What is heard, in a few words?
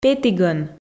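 "петігӧн", Komi, female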